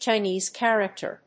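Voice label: "Chinese character", English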